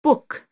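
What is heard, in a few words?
"book", English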